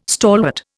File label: "stalwart", Indian